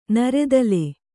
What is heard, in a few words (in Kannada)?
"ನೆರೆದಲೆ", Kannada